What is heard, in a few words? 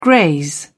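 "graze", British English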